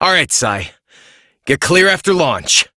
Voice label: Operator Voice, en; New War